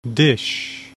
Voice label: "DISH", English